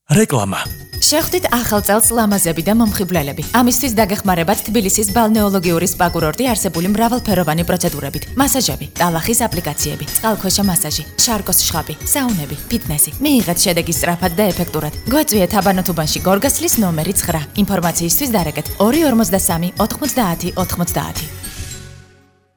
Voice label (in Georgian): reklama